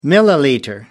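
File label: "milliliter", British English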